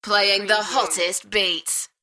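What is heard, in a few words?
UK female, DJ vocals; beanstalk-audio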